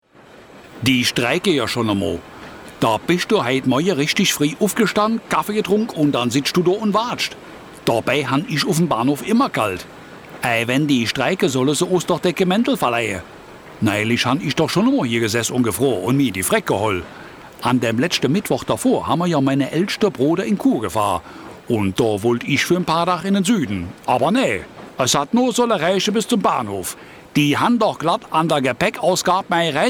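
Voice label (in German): Deutsche Dialekte Saarländer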